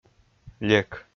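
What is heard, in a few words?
"лек", Komi, male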